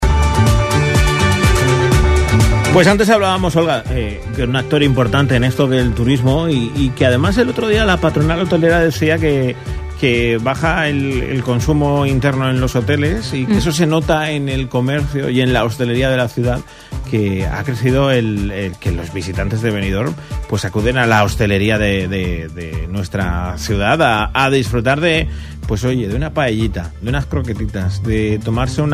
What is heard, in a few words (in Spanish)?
entrevista radio; verano hosteleria abreca; Radio Sirena COPE